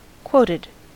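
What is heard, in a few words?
"quoted", US English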